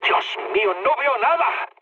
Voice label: searchlite, mood high, speech; War Thunder